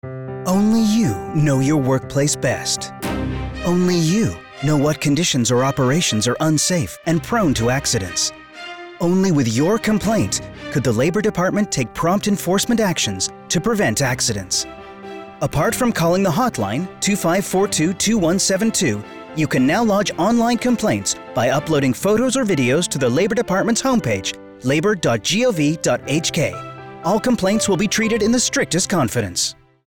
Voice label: Eng radio; Report of Unsafe Practices and Working Conditions at Workplace; TV and Radio Announcements